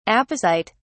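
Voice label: "apocyte", British English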